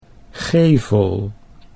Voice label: "gevel", Dutch

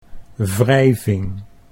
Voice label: "wrijving", Dutch

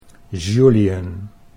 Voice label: French

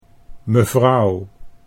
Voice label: "mevrouw", Dutch

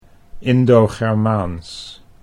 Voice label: Indo Germaans, Dutch

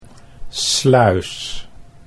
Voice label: "sluis", Dutch

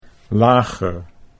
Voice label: "lager", Dutch

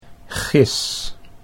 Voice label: "gis", Dutch

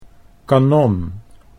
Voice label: "kanon", Dutch

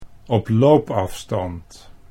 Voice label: "oploopafstand", Dutch

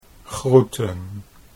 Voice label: "groeten", Dutch

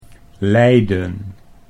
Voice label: "lijden", Dutch

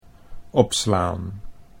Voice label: "opslaan", Dutch

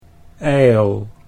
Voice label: "ijl", Dutch

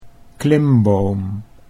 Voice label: "klimboom", Dutch

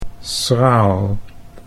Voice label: "schraal", Dutch

